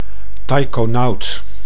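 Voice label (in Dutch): "taikonaut", Dutch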